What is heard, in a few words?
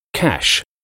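"cash", English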